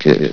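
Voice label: "kit", male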